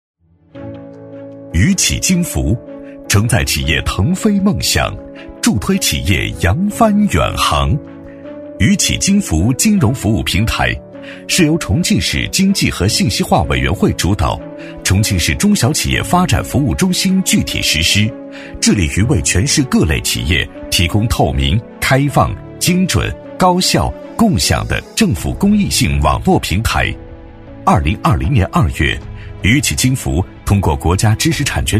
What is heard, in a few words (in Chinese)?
男 企业专题 权威正式; 磁性质感; 大气浑厚